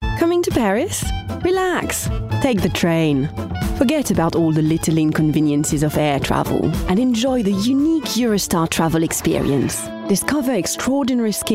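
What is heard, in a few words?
European English, female, Showreel, accent of France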